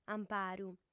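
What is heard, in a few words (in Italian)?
"amparu", sarda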